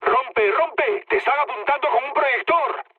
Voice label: searchlite, mood med, speech; War Thunder

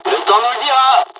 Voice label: dialog, dialogs french; War Thunder; midway

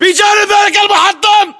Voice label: landmark near bulldozer, battle chatter, english

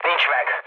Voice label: bomb failure, speech hun; War Thunder

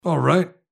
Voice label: Neutral Yes; Civ5; Washington

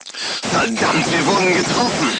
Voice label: voice message player tank hit, crew tanks german

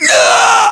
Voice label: death, voiceovers english

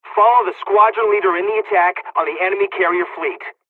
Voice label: dialogs polish; midway us; fly; War Thunder

